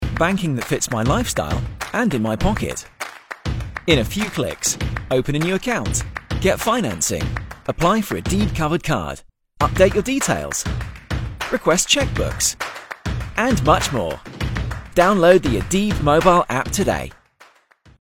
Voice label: Mobile Banking young UK male voice over; ADIB